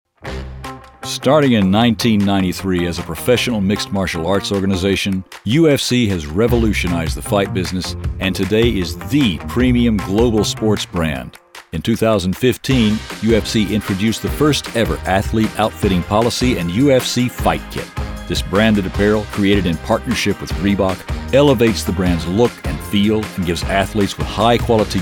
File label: CORPORATE MIX